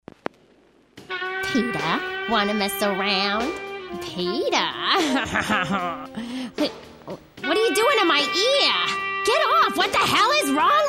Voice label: animated

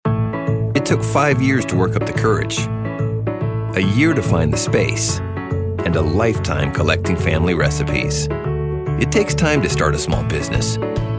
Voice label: friendly

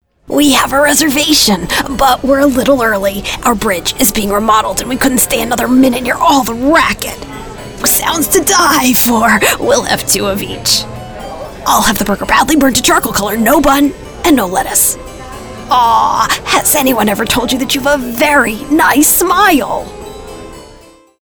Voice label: Character; Vampirina

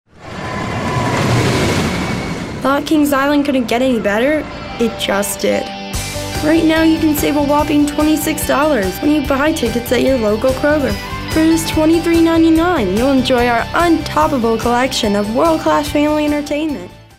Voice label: Upbeat

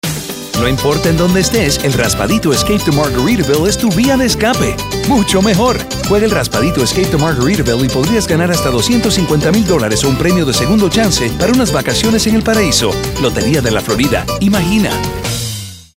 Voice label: friendly guy next door

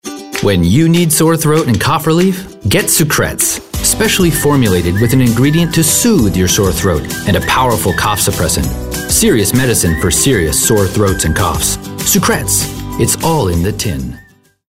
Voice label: Upbeat

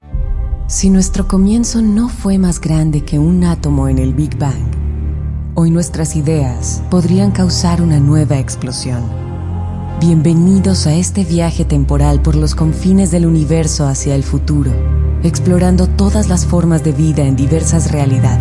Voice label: Latam Spanish, Narration

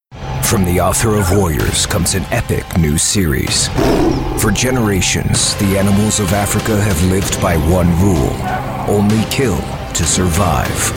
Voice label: Trailer

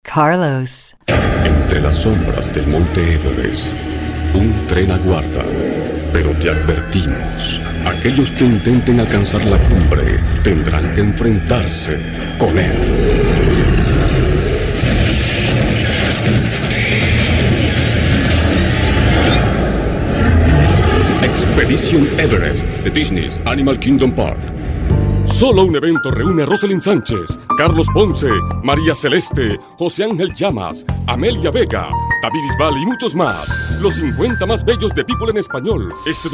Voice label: Spanish

